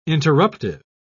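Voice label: "interruptive", British English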